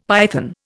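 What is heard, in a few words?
"python", Indian English; Dictionary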